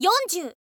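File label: "yonzyuu", Japanese, girl; voice; info girl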